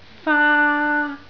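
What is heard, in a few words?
"faa1", Cantonese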